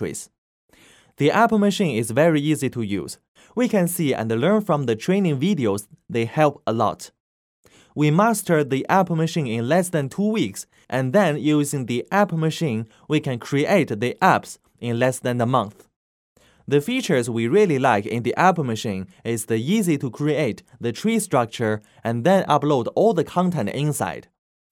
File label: Voice Over Artist, English with chinese accent